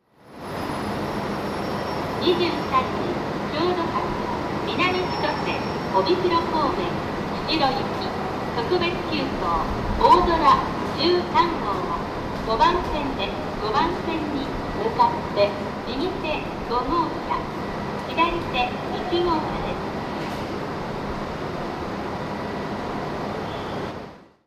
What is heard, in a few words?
sapporo announce, station; jrhokkaido